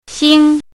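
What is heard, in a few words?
"xing1" Mandarin Chinese, high level tone; chinese-voice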